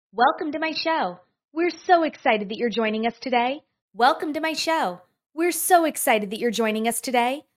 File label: audio quality recording